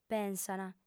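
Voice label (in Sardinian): "pensant", Sardinian, female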